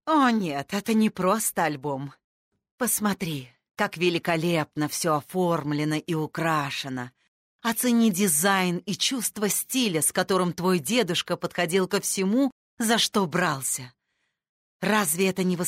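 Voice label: russian female character; voice demos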